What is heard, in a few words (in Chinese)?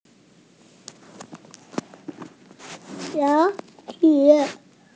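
"ya2 chi3" Mandarin Chinese, female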